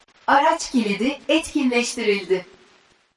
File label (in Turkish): Tesla Lock Turkish Woman; turc